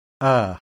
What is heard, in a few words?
open-mid back unrounded, IPA sounds